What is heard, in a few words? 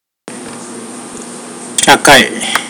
"stone", pohnpeian